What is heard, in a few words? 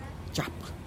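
"xap'h", Teotitlán del Valle Zapotec; opposite sex (female)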